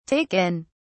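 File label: "take in", British English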